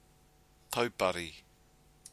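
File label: "tau pari", Māori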